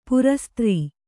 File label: "ಪ್ರಸ್ತರ", kannada